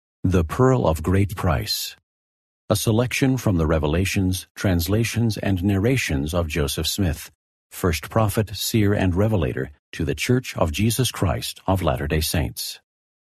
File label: male voice, eng; the pearl of great price